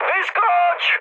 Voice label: bailout, speech cze, mood high; War Thunder